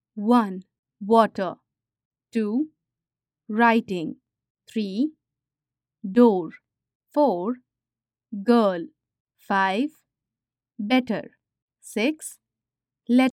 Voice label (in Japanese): インド人 女性 音声 サンプル 英語